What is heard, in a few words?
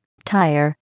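"tire", English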